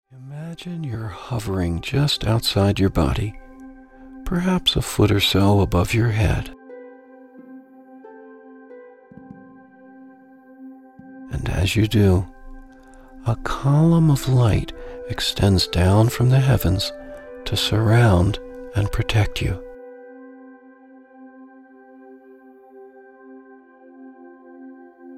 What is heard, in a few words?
Grace Meditation